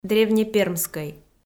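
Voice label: "древнепермскӧй", Komi, female; kpv audio dict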